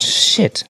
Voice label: "shit", Voice expletives